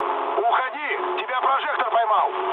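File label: searchlite, mood med, Russian; War Thunder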